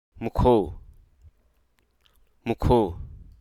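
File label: Hruso Aka; consul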